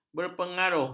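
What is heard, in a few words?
"berpengaruh", Malay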